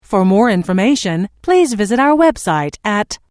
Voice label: Telephone prompt: For more information please visit our website at, Recorded Voice; Pre-recorded telephone